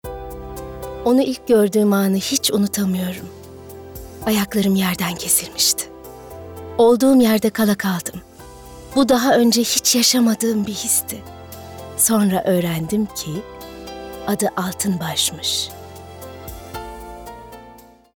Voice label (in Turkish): Romantik